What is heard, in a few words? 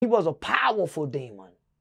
powerful demon